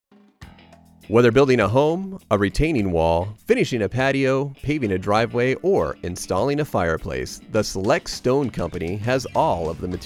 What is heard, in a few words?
Messaging On Hold